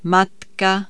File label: mother, Czech